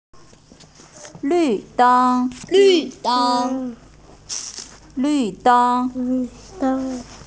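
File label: "lv4deng1", Mandarin Chinese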